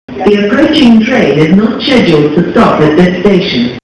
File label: female stop